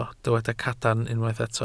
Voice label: "cadarn", Welsh